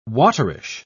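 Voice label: "waterish", British English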